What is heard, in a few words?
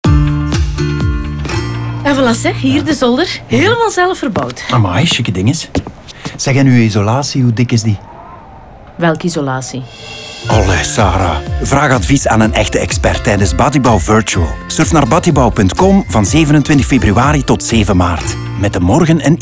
Radio NL Spot; Batibouw; Spons DeMorgen